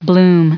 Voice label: "bloom", en-us